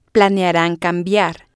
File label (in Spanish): "planearan Cambiar", spanish